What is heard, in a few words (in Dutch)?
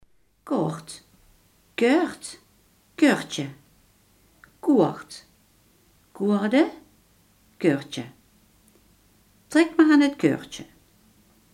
"koord", Limburgs